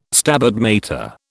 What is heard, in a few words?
"Stabat Mater", British